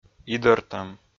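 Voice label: "идӧртӧм", Komi-Zyrian, male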